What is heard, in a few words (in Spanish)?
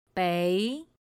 "béi", Chino